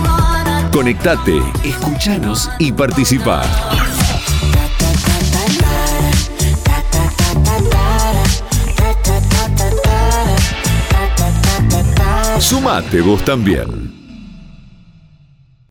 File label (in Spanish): Arte Pack Tarde, Spots genericos, Voz masculina; Para vestir LA TARDE; 10 spots personalizables